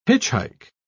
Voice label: "hitchhike", pronounce